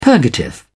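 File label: "purgative", British English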